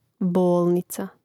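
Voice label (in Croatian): "bolnica", Croatian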